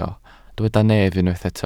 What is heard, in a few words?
"nef", Welsh